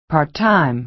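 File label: "part-time", American English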